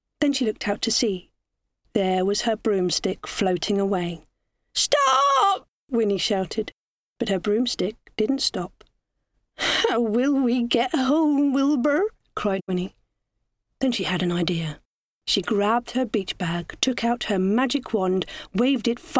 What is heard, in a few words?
childrens books, voice actor